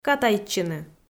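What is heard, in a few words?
"катайтчыны", Komi, female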